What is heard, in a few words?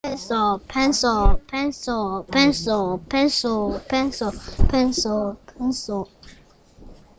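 "pencil", spoken word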